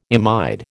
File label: "imide", American